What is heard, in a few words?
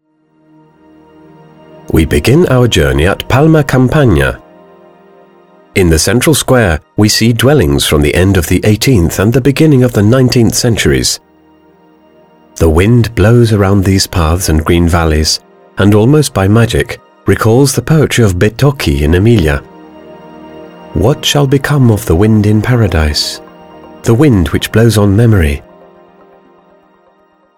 documentary